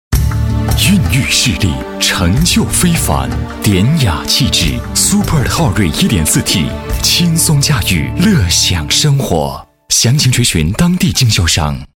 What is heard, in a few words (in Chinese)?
男 广告 宣传 时尚大气, 国语男声配音 年轻专题; 昊锐宣传